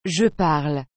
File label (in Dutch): "je parle", frans; parler